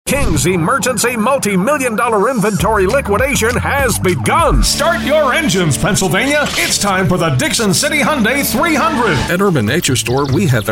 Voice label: Commercial, Male Voice Actors - Commercial Voice Over